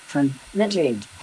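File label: voice acting, es, female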